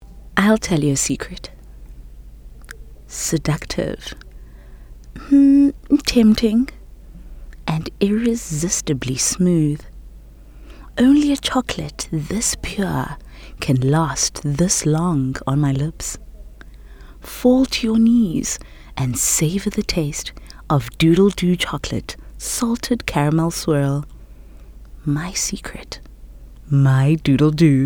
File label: English Intimate; OSM Talent